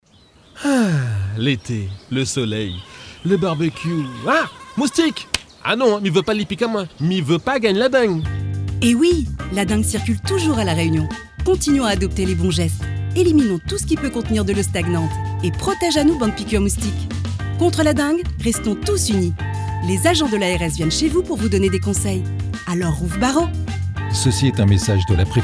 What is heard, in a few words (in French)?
campagne dengue; ARS